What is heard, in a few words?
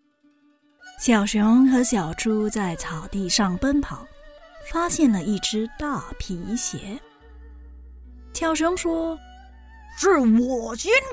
Mandarin Character voices